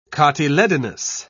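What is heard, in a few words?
"cotyledonous", British English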